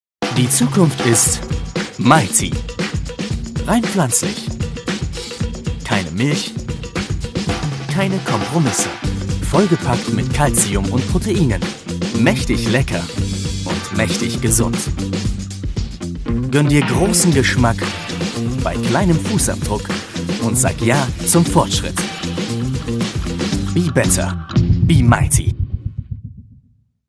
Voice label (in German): Werbung